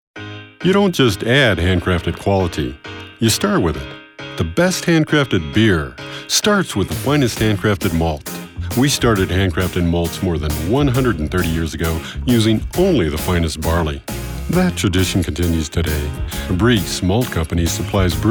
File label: Commercial VO